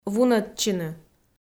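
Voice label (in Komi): "вунӧдчыны", Komi-Zyrian, female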